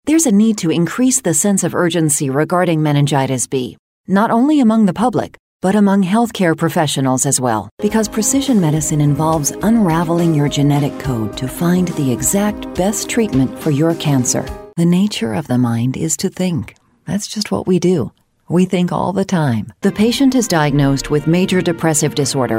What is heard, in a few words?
40s50s Female Narration